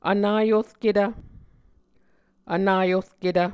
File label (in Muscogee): "vnaorketv", Muscogee